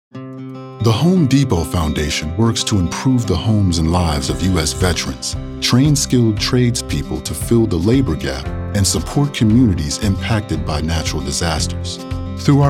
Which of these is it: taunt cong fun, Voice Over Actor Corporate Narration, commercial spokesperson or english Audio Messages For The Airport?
Voice Over Actor Corporate Narration